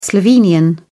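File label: "slovenian", British English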